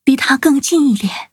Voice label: level up, zh-hant